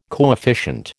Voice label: "coefficient", American